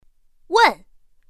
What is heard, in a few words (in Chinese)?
"wèn", pinyin; pinyin2; pinyinji